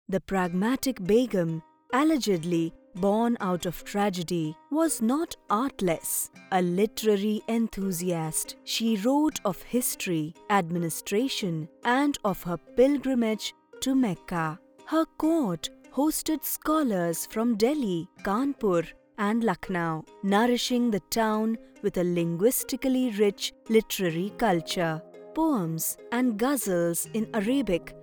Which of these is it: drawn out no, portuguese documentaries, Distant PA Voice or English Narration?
English Narration